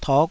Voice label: "tok5", taiwanese Syllables

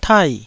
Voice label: "tai4", taiwanese Syllables

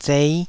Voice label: "zei5", Taiwanese

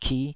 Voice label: "kih5", taiwanese syllables

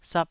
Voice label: "sap2", taiwanese syllable

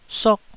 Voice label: "sok4", Taiwanese syllable

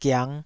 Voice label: "giang5", Taiwanese syllable

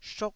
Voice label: "shot1", Taiwanese

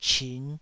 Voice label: "chin5", taiwanese

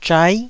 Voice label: "zhai9", taiwanese

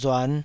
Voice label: "ruan5", taiwanese

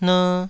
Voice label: "ner2", taiwanese

